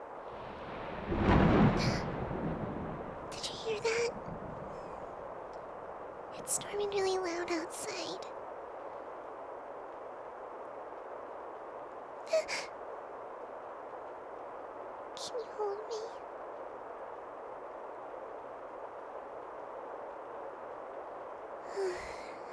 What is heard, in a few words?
Thunderstorm Comfort, ASMR; Cuddling Under The Thunderstor